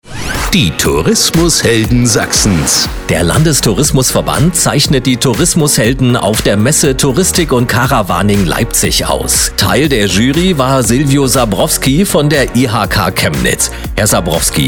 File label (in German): Infomercial; LTV; Tourismushelden